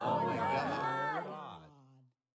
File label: audience "oh my god"